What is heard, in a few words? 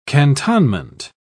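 "cantonment", British English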